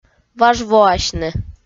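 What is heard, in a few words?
"важвоасьны", Komi-Zyrian, female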